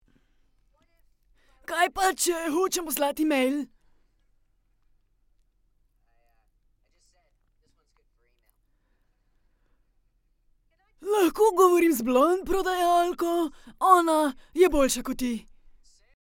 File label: female, Slovenian character; devine voices